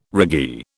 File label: "raggee", British